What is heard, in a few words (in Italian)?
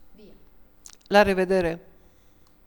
saluti, rumeno; frasi utili